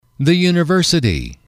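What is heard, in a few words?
"the university", English, male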